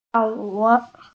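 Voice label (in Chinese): "tiao4wu3", Mandarin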